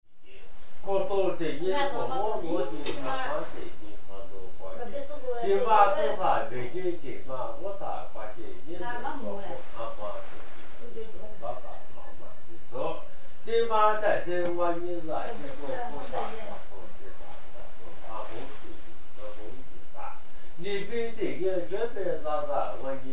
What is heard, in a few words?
lisu prayer